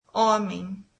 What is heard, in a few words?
brazilian man